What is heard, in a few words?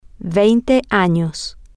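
twenty years old, Spanish, female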